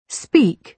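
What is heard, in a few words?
"speak", British English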